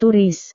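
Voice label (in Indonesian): "turis", Indonesian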